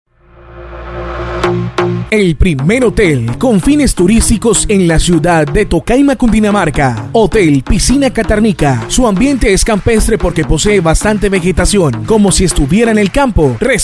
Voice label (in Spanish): publicidad; Hotel Piscina Catarnica; Hotel en Tocaima